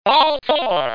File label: "Ball Four", Baseball; World Series